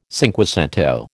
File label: "cinquecento", American